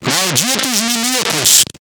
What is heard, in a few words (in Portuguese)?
distorcido